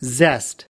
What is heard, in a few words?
"zest", us